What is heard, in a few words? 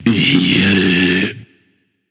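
"seriously", male; smite; voicepack; olorun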